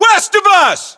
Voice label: direction relative west, battlechatter us